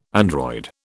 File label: "android", British